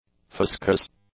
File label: "fuscous", English; adjectives